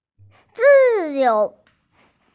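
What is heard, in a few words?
"zi4 you2", Mandarin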